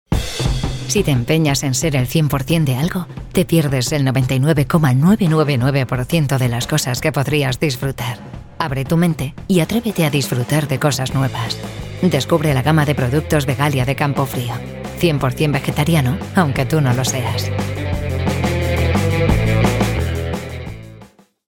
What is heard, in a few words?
paced energetic voiceover